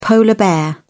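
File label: "polar bear", British English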